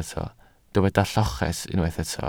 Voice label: "lloches", Welsh

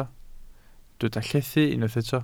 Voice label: "llethu", Welsh

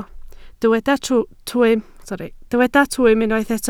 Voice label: "twym", Welsh

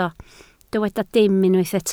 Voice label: "dim", Welsh

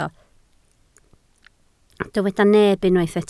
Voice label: "neb", Welsh

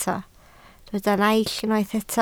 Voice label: "naill", Welsh

